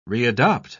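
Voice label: "readopt", British English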